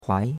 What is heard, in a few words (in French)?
"huai2", chinois; Cours-de-Chinois